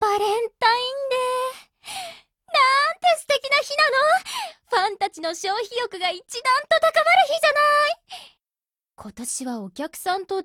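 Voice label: valentine, Japanese; 少女前线:APC9K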